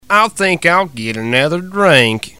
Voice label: Player Wins - Get Another Drink, Redneck Voice; blackjack